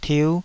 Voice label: "tiu5", taiwanese Syllables

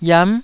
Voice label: "yam9", taiwanese

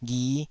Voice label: "ghi5", Taiwanese syllable

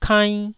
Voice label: "kainn1", taiwanese syllables

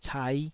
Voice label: "cai5", taiwanese

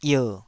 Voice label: "ier3", taiwanese syllables

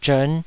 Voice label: "zhern5", Taiwanese

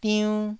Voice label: "diunn1", Taiwanese syllable

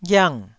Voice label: "ghiang4", Taiwanese

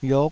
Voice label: "yiuk5", taiwanese

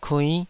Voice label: "kuinn5", Taiwanese syllable

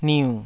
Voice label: "niu3", Taiwanese

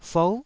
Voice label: "fou9", taiwanese syllable

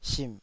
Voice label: "simh3", Taiwanese syllable

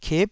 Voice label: "kip9", taiwanese syllables

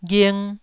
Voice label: "ghing1", taiwanese syllable

